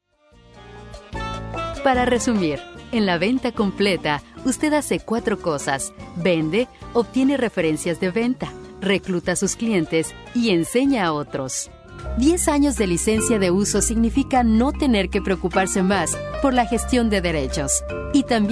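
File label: spanish narration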